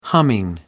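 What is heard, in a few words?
"humming", English, British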